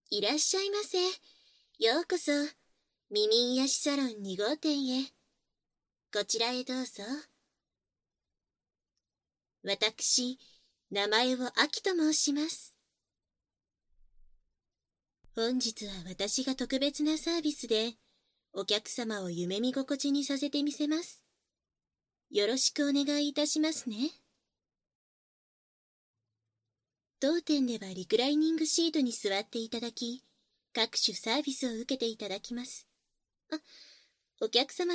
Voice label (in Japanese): オープニング 自己紹介（バイノーラルマイク収録）; バイノーラル編集 効果音あり